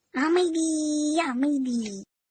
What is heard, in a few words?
Tamil; Shinchan